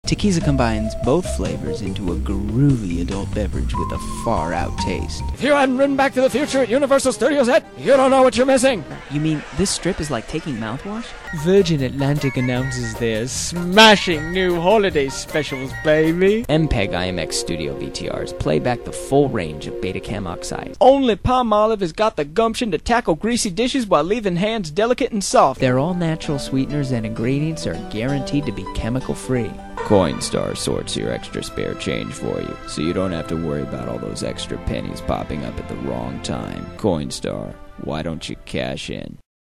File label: commercial